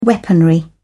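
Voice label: "weaponry", British English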